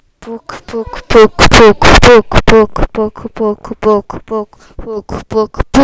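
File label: "book", spoken word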